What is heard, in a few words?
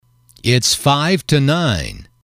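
"it's five to nine", English, male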